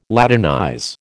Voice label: "Latinize", American